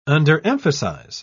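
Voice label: "underemphasize", British English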